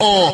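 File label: "uh", male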